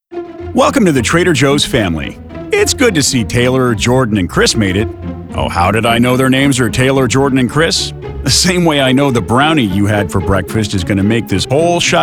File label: E LEARNING